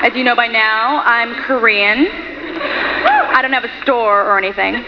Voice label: korean; "As you know by now, I am Korean..."